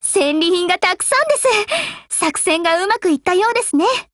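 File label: OPERATION OVER Japanese, Voice; GF Alarm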